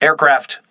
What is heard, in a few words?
voice message air alert, crew ships english